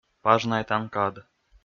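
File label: "пажнайтан кад", Komi, male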